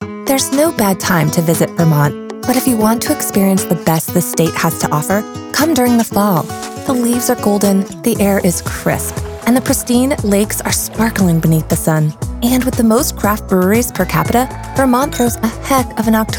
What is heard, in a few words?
Narration